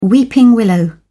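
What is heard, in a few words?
"weeping willow", British English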